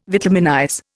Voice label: "vitaminize", Indian

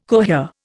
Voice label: "cohere", Indian

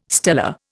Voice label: "stellar", Indian

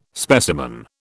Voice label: "specimen", British

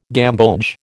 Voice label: "gamboge", American

